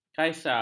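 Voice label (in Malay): "kaisar", Malay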